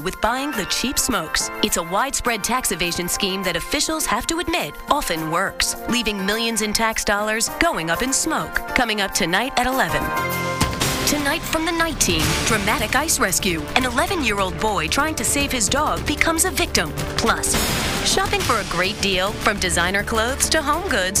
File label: News Affiliate; tv affiliate